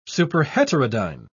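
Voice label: "superheterodyne", English, uk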